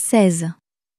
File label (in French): "seize", French